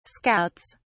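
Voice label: "scouts", British English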